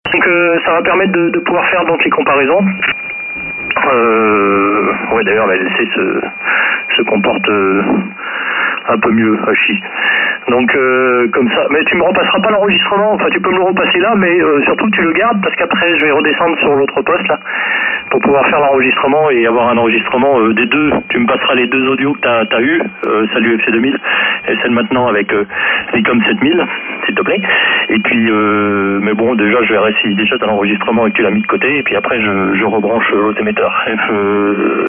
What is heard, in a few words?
ic7000 micro origine; icom hm151; micro wm61